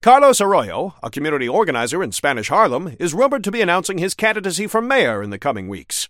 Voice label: Newscaster headline